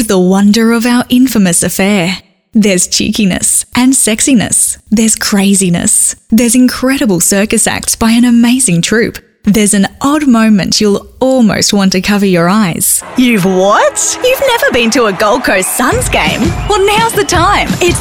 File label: female talent retail